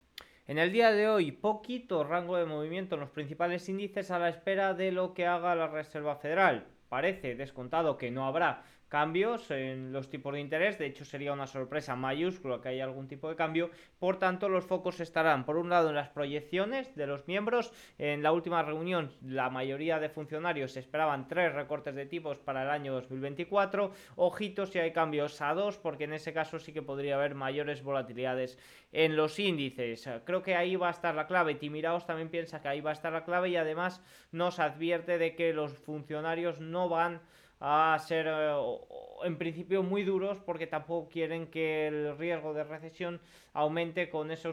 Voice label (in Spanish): radio; noticias bolsa; sin apenas movimientos hasta la decision de tipos